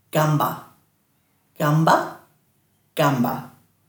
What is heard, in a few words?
"gamba", Dhurga